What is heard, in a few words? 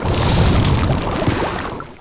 goblin alchemist, orcs buildings; war2